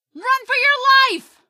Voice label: run for your life, female; Garry's Mod; hl2

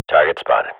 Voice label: radio bot target, swat; Global Offensive; player vo

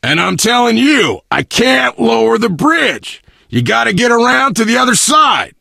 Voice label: initial meeting, survivor voice biker

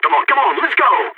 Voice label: radio lets go, balkan; Counter-Strike Global Offensive

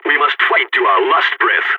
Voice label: pep talk, balkan; Counter-Strike Global Offensive

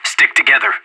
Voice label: radio bot regroup, player vo anarchist; Counter-Strike Global Offensive

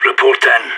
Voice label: radio bot query

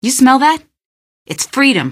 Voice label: question, female; Garry's Mod; hl2

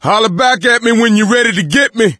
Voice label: call for rescue, voice; Left 4 Dead 2; coach

